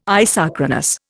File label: "isochronous", Indian